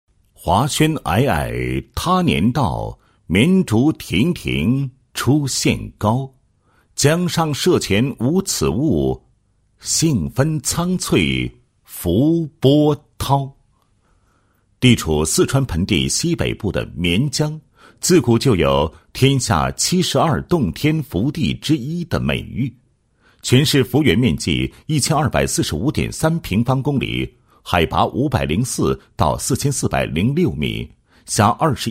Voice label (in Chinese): 男-报告-创建省级园林城市技术报告, 配音案例